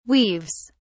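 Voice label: "weaves", British English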